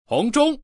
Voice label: red, voice man